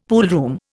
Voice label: "poolroom", Indian; Dictionary